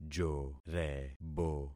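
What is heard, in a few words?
"jorebo", concatenated syllables; Affixing experiment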